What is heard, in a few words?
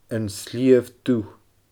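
"An Sliabh Dubh", Scottish Gaelic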